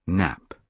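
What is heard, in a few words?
"nap", British English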